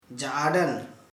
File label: "snake", Sora